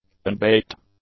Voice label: "unbaked", English; adjectives

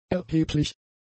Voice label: "erheblich", German; adjectives

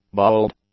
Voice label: "bald", English; adjectives

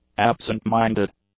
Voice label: "absent-minded", english; adjectives

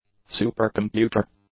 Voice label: "supercomputer", english; nouns

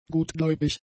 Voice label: "gutgläubig", German; adjectives

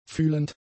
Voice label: "fühlend", German; adjectives

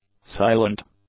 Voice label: "silent", English; adjectives

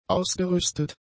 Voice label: "ausgerüstet", German; adjectives

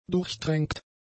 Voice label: "durchtränkt", german; adjectives

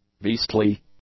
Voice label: "beastly", English; adjectives

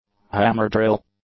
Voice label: "hammer-drill", English; nouns

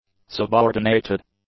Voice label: "subordinated", English; adjectives

